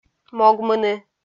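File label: "могмыны", Komi, female; kpv; audio dict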